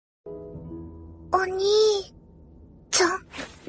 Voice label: onii chan little girl voice korean

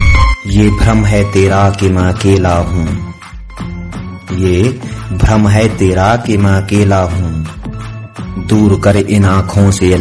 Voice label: dialogue hindi; mahakal; lord shiva

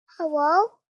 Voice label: hello cute sound notification

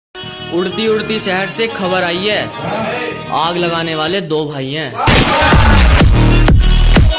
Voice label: shayari, hindi, attitude

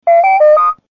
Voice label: speak spell

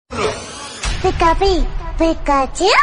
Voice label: pika pika notification song; pikachu

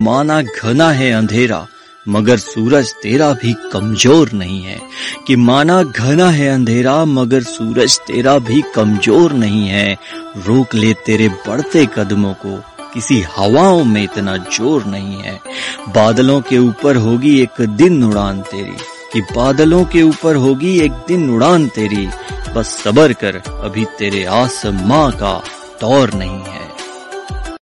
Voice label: motivational hindi

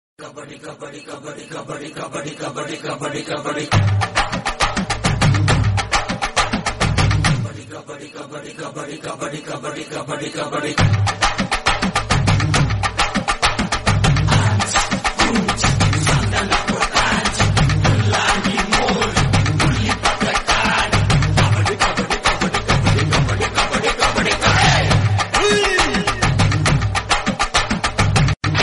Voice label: dialogue tamil; gilli; prakash raj; tamil movie

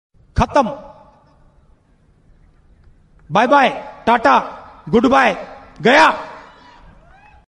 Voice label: funny; rahul ghadhi

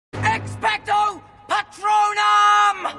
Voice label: expectro petronum english magic mantra

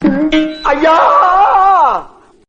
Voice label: funny tamil; vadivelu

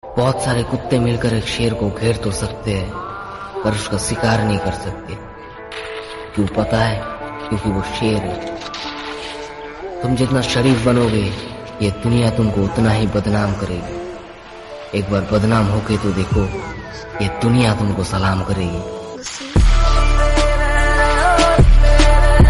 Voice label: boy attitude shayari hindi

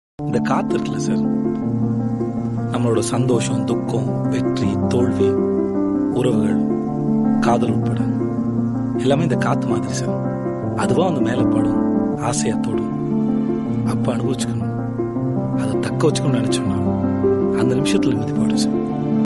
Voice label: emotional dialogue; krk

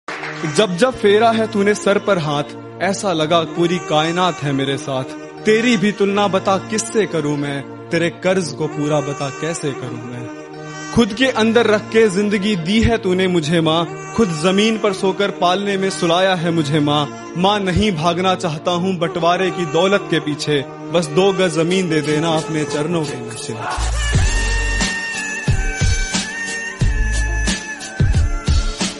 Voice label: happy birthday mom shayari Hindi

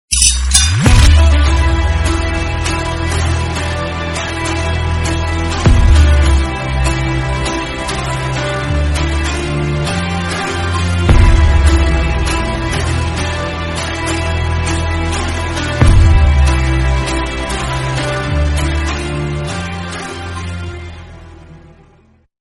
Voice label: dialogue malayalam; kgf chapter 1

